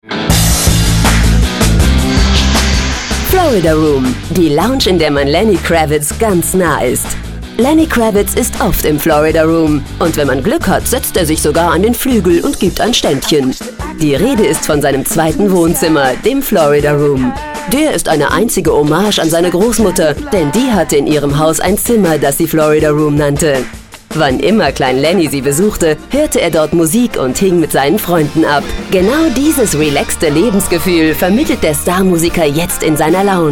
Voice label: Doku, sprecher in; Stimme von Angelina Jolie, Jennifer Connelly; Yovie Film- und Videoproduktion Berlin